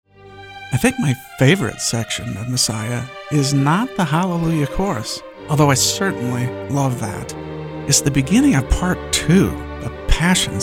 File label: radio spot